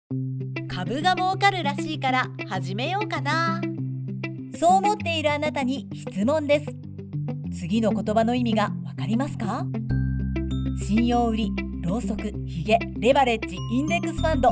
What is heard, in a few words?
securities company commercial